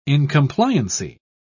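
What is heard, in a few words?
"incompliancy", British English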